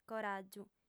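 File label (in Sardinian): "coràgiu", female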